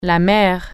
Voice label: "sea", French